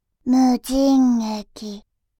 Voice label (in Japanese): 無人駅, バイノーラル右